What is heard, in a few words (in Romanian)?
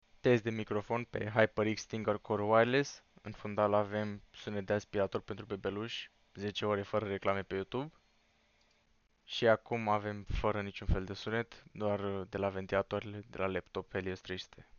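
HyperX Cloud Stinger Core Wireless Test microfon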